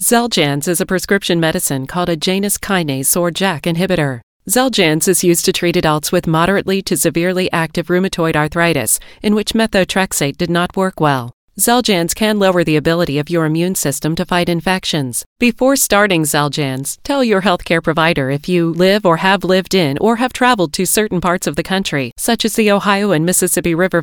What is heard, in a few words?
NARRATION MEDICAL